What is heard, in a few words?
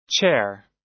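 "chair", American English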